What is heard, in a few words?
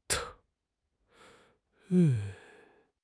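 "teng2", Mandarin Chinese; HSK3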